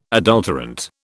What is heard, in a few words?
"adulterant", British